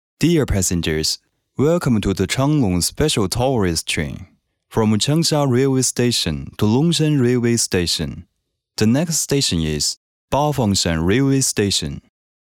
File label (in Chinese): 男英 多媒体 广播提示 报站语音; 长龙专列报站语音